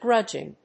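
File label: "grudging", English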